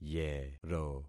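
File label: "yero", concatenated syllables; Affixing experiment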